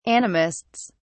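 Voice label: "animists", British English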